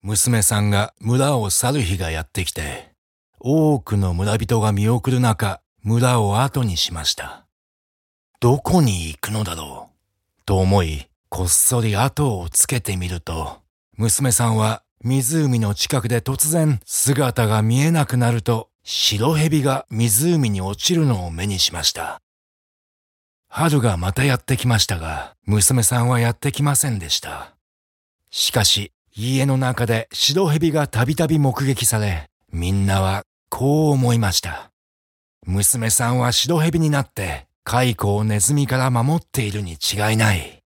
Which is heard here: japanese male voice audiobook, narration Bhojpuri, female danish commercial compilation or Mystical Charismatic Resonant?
japanese male voice audiobook